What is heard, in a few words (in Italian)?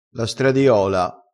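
"stradiola", dialetto crespinese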